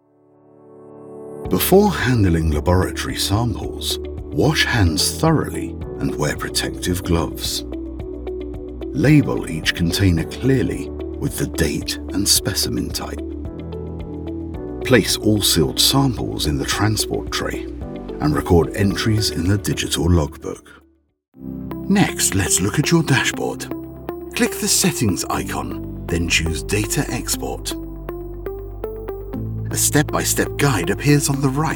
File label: E-Learning